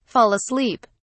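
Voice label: "fall asleep", British English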